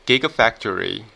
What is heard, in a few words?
"gigafactory", american pronunciation